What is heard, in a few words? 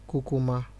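"kukuma", Nigerian Pidgin, male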